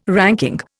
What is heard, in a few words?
"ranking", Indian